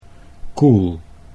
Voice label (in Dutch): "koel", Dutch